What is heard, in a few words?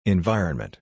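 "environment", English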